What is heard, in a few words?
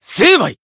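Voice attack, Japanese; shigure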